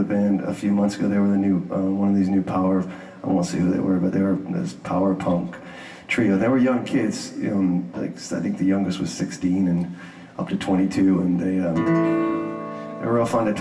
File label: English Accent